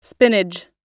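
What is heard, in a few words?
"spinach", US English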